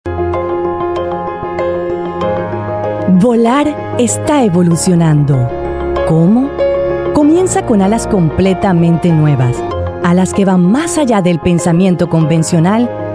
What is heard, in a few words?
spanish voice over female narrator